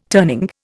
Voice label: "turning", Indian